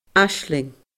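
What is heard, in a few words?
"aisling", Scottish Gaelic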